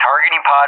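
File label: "TGP", English; JTAC; Weapon; DCS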